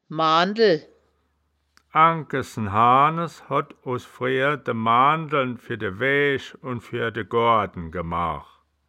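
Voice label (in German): "maandel", wittlicher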